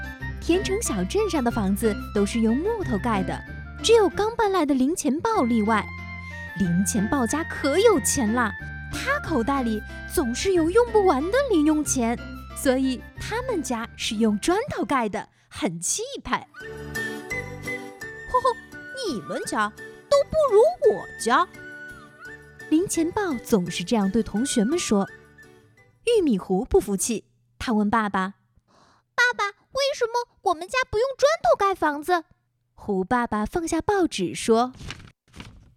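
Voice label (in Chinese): 儿童故事多角色配音, 女配音 青年（18-35） 普通话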